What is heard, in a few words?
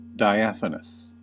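"diaphanous", US English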